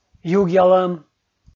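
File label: "югъялӧм", Komi, male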